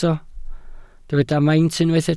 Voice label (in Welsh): "maint" Welsh